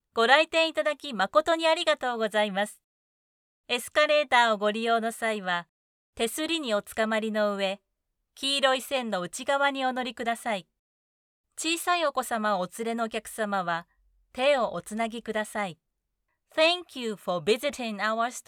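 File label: Escalator announce Japanese + English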